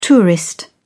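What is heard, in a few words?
"tourist", British English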